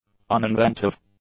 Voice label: "uninventive", English; adjectives